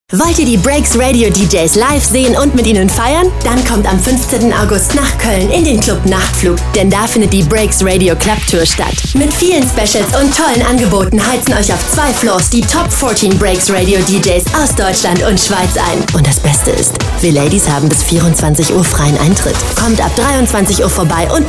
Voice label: Radio Spot Club Tour